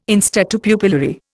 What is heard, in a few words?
"in statu pupillari", Indian